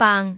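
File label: "baang3", Cantonese; microsoftHiuGaai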